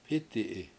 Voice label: "p7Iti7i", Washo; he fell